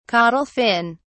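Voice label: "caudal fin", British English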